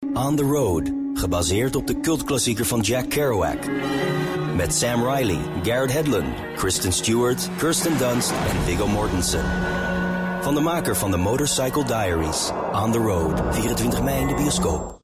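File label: Dutch, male, commercial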